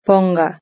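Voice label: "ponga", Spanish; verb-conjugator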